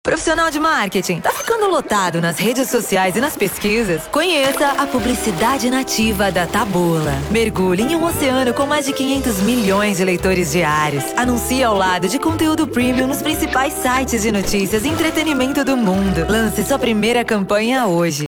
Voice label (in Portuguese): Locucao